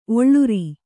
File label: "ಒಳ್ಳೂರ್", Kannada